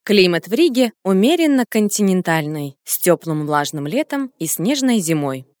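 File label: Russian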